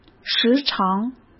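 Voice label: "时常", Mandarin Chinese; hsk; chinese-flashcards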